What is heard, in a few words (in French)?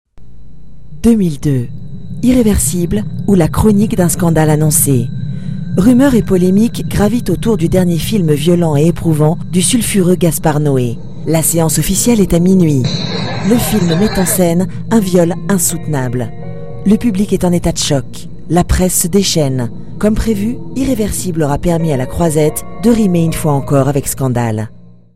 Grave suspense; Irréversible